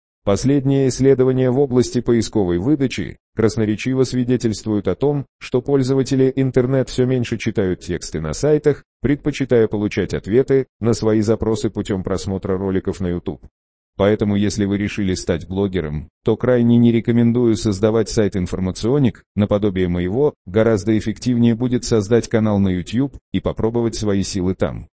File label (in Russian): bot golos